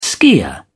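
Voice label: "skier", British English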